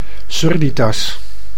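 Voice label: "surditas", Dutch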